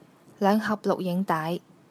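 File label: "hap6 luk6ying2daai2", Cantonese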